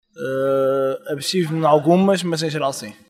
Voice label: Vox pop